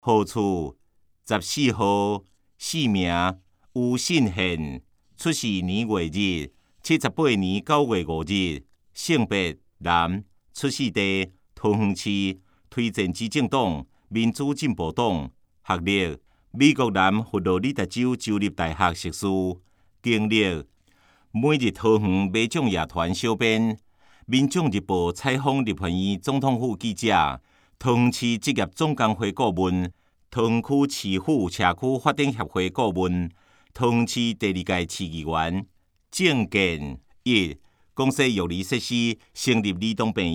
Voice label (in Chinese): 台語; 有聲公報; 市議員